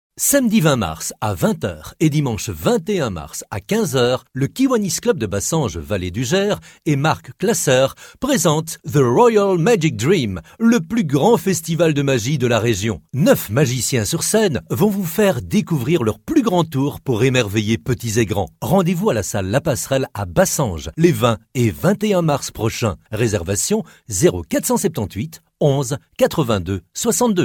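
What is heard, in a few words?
FR M commercial, French, male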